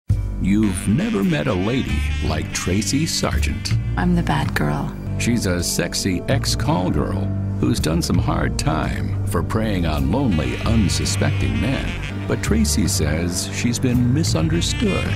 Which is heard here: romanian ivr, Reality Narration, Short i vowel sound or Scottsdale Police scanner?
Reality Narration